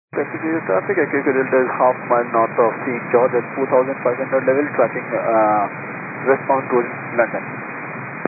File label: 122 925MHz, scanner radio